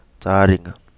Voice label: "Taringa", Kapingamarangi

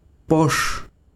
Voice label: besiro casa, chiquitano

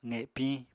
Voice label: "qepiñ", Apatani

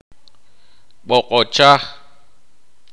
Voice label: "ojo", K'iche'

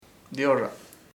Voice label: "heel talón", chamacoco

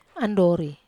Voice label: "andori", Wamesa

